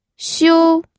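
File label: "xiu1", Mandarin Chinese pinyin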